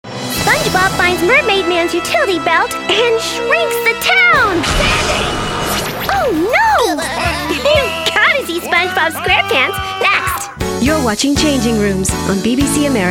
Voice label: promo